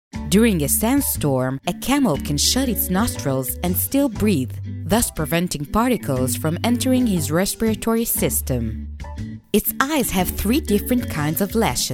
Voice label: english commercial

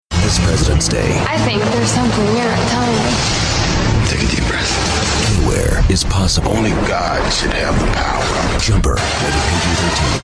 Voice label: english american promos

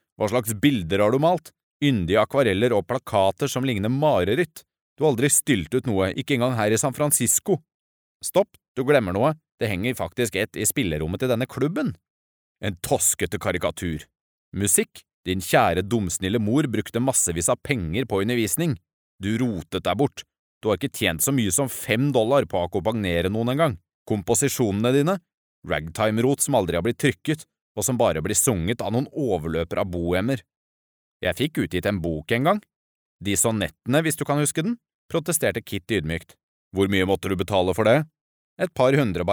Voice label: norwegian audiobooks

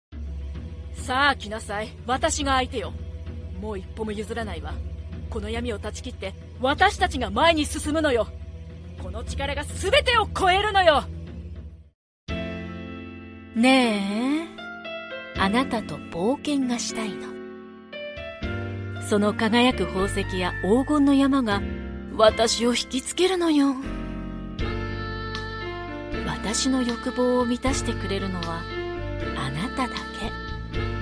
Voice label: japanese video games

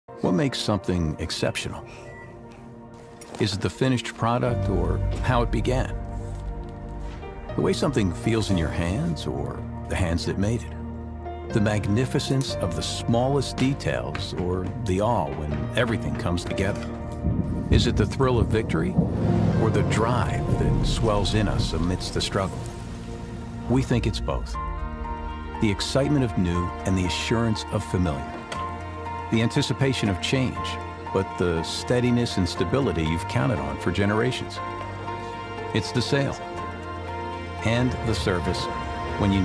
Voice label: english american automotive

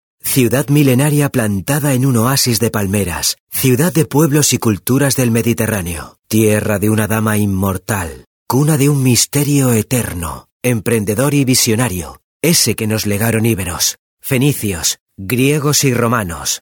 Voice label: spanish documentaries

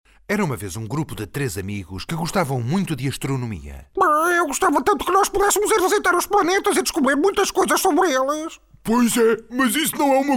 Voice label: portuguese impersonations

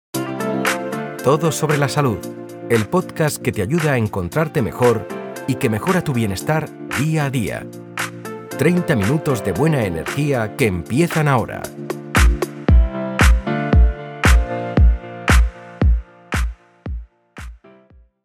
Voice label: spanish podcasts

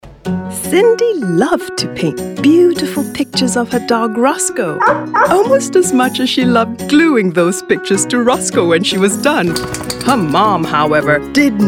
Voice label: english african animation

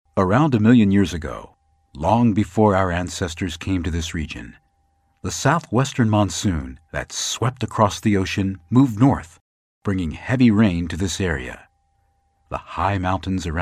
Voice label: english american documentaries

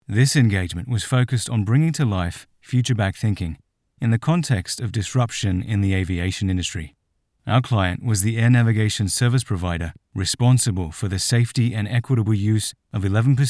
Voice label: English Australian e-learning

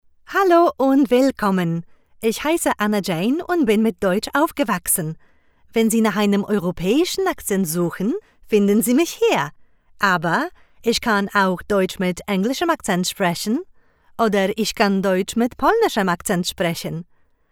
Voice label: german commercial

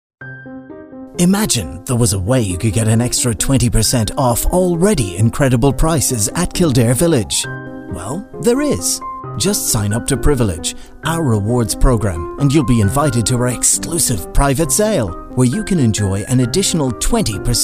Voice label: english irish commercial

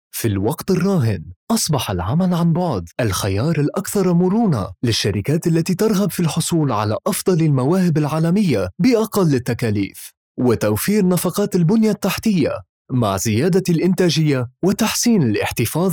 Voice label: arabic e-learning

